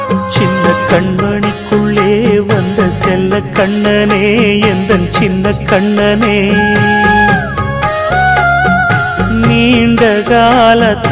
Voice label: Tamil

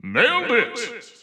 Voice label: announcer; Smash bros

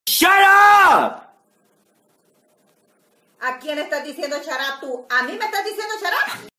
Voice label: Shutup Mexican

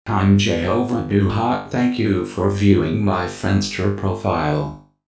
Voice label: Robot; Jehovah

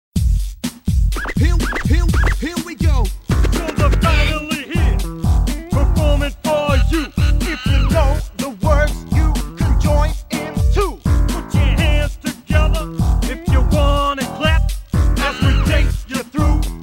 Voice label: Rap

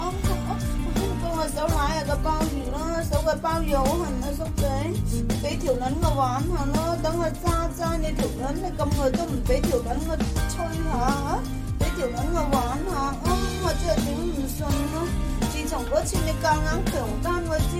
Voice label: Cantonese Saying

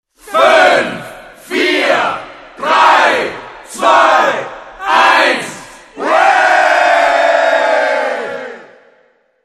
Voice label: Countdown Yeaaaaaah

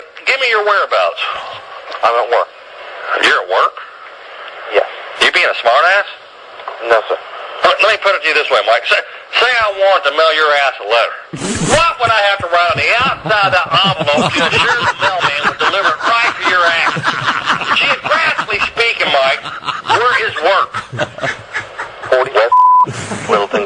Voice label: Prank Call

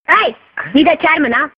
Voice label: Tamil

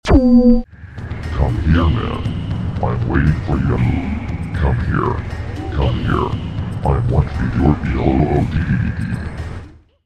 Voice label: Horror Voice

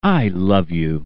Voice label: I Love You Male

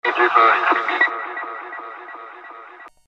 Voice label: Robot Voice And Beep

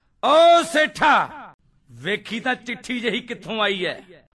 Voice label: Punjabi

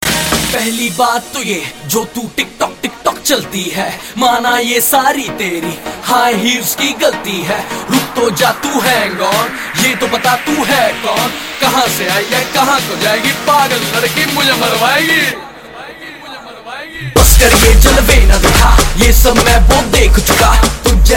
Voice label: Rap

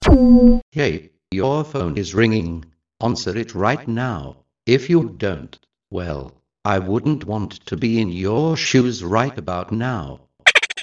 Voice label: Butler Phone Call

